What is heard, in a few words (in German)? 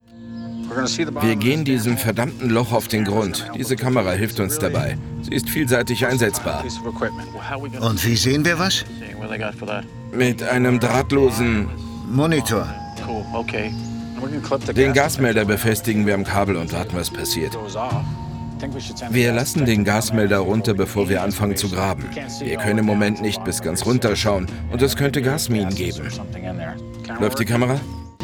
Voice Over, maenner; Lost Gold of WWII